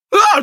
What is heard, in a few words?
hit, en; Cookie Run